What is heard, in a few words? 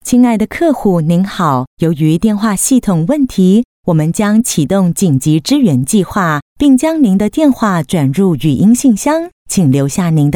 Mandarin Taiwan voice-over female